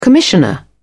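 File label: "commissioner", English, British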